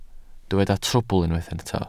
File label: "trwbl", Welsh